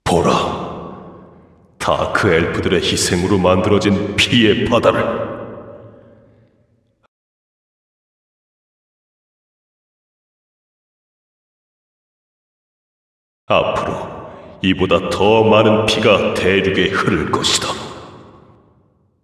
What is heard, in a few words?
cinematic voice; lineage2